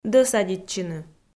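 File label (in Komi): "дӧсадитчыны", Komi, female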